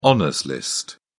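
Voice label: "honours list", British English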